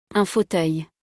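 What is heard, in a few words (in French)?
"un fauteuil", French; vocabulaire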